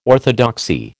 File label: "orthodoxy", American